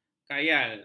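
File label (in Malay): "khayal", Malay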